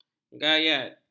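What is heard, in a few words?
"gayat", Malay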